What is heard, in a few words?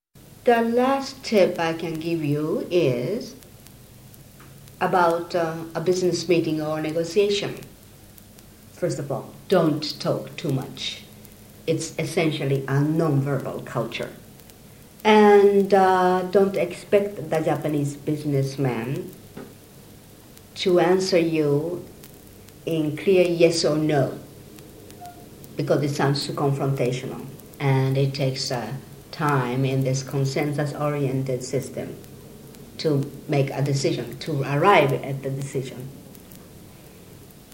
conversation